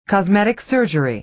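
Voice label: "cosmetic surgery", American English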